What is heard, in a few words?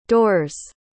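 "door's", English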